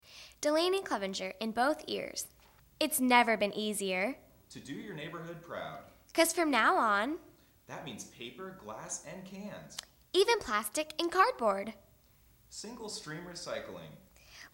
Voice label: kids commercial; Mid-late teens, perky and crisp. The perfect babysitter.